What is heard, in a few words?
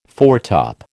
"foretop", American